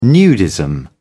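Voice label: "nudism", British English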